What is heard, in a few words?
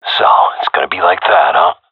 scared, seal diver; vo; agents; Source Model Packs; Counter Strike Model Pack; CS2